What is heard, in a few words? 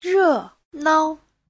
"re4nao5", chinese; Giáo trình Hán ngữ